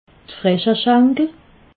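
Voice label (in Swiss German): "fresche schenkel", Alsatian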